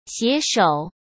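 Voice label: "携手", Mandarin Chinese; hsk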